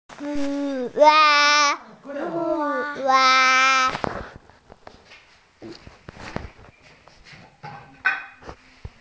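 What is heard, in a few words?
"hua1", Mandarin Chinese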